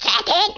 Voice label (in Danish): "Dragonpunch", Danish